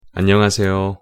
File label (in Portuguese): pronuncia coreano ola